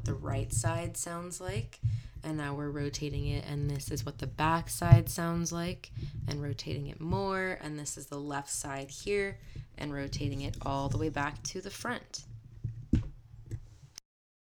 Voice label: Movo UM300 omnidirectional speaking microphone sample